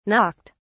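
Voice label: "knocked", English, British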